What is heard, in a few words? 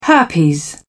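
"herpes", British English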